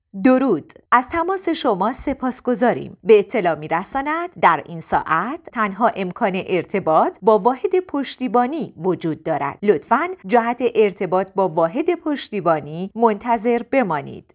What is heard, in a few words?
voice women